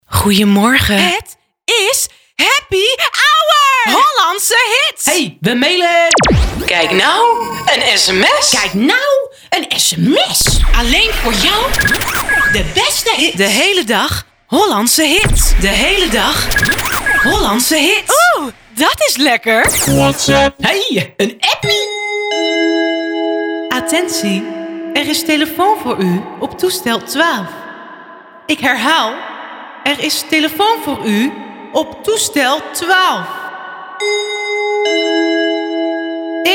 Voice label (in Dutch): Algemene Jingles